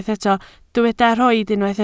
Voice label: "rhoid", Welsh